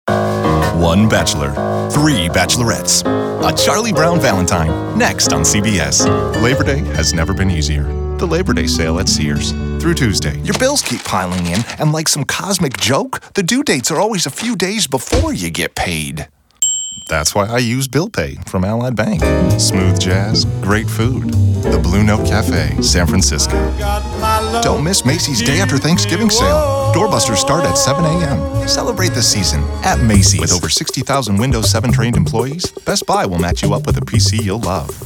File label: Commercial